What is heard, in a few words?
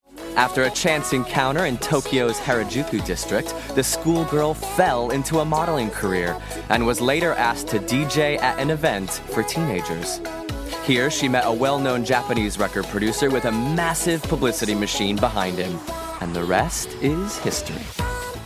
Narration